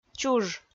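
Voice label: "чуж", Komi-Zyrian, female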